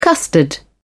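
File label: "custard", British English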